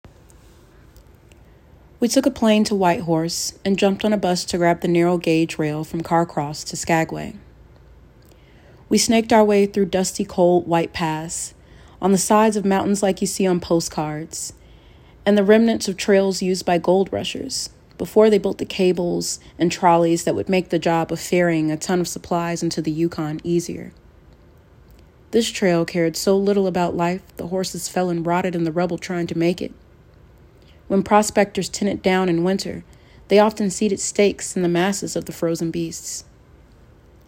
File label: narrative